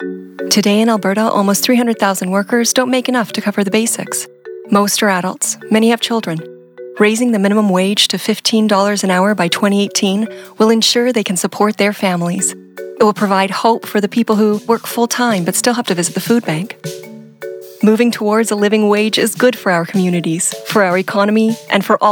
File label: Radio, Voice And Music; GOA Minimum Wage; Radio30